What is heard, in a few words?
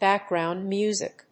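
"background music", English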